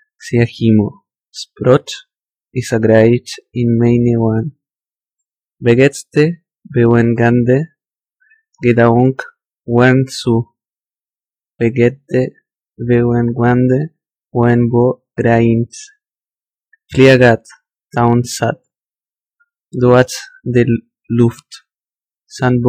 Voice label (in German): listme dialekt